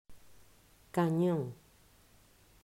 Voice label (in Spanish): "Canon", pronunciacion; mausschool